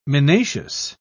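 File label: "minacious", British English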